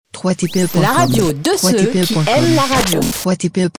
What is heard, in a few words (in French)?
La radio de ceux qui aiment la radio, femme